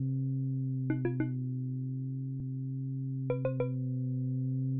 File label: sick man; adarkroom